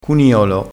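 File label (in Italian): "cuniolo", vocabolario dialettale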